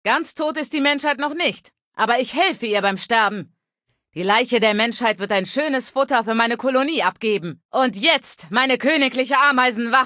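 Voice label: Female adult default speech challenge failure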